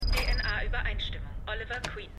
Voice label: Computerstimme; Felicitys; Arrow

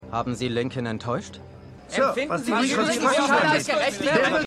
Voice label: Reporter; Nach Dem Attentat

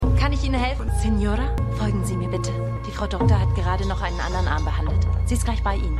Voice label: Empfangsdame, Stimmproben; LO SVU

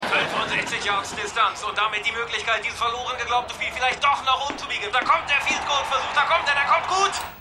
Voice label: TV Sportkommentator; Stimmproben

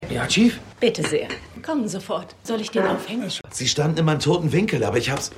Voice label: Kellnerin Mann; Stimmproben; Fargo

